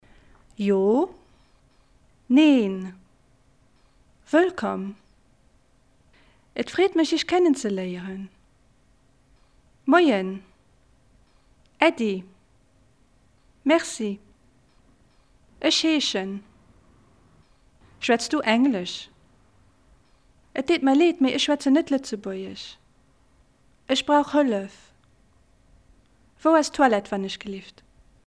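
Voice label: Luxembourgish, Language Being Spoken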